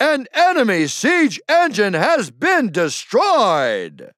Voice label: Announcer Match Siege Enemy Destroyed; Bruce; paladins

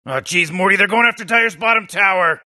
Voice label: announcer dire bottom tower under attack; dota2; rick and morty

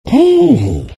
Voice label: happy; Disruptor

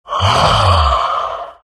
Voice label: happy

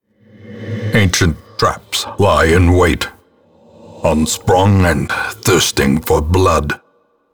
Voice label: narration; Darkest Dungeon; trap

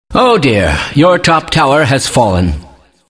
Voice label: announcer; Kleiner

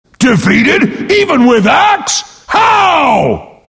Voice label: announcer defeat special; Axe

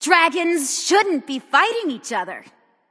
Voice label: Taunt Directed; Dragon Keeper Discordia; Ao Kuang

